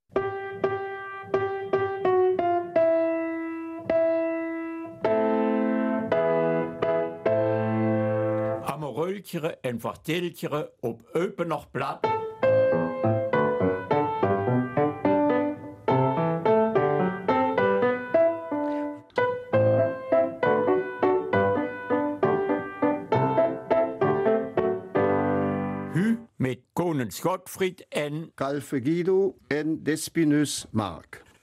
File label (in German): Eupener Mundart; Unter Strom